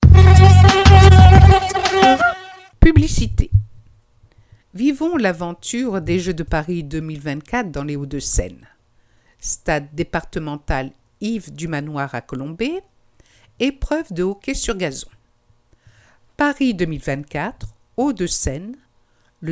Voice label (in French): publicite; L'Abeille 53